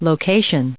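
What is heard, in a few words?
"location", US English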